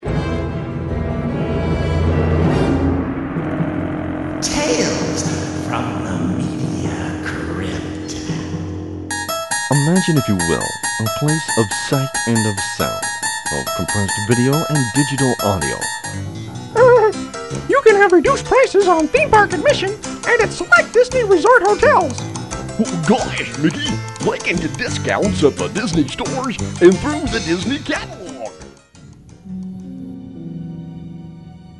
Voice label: Characters Voices